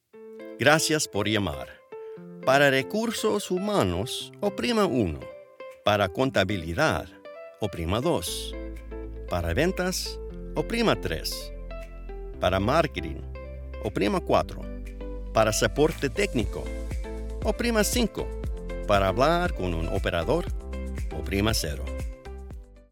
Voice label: ivr phone system voiceover spanish corporate, Warm. Clear.